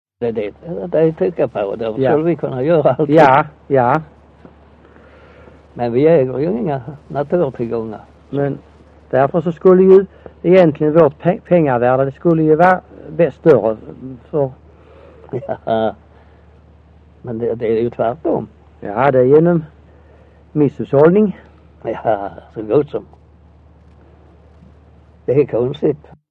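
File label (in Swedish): Össjö Skåne, dialekter; Folkminnen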